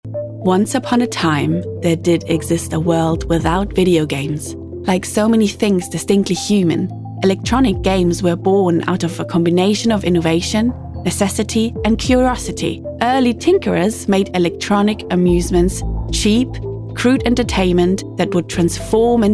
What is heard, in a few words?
Doc English